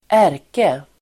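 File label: "ärke-", Swedish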